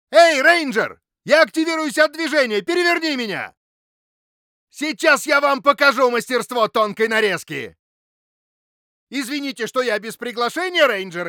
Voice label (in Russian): ИГРА РУС